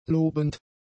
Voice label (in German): "lobend", German; adjectives